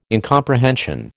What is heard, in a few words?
"incomprehension", American